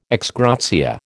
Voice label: "ex gratia", American